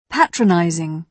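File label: "patronizing", British English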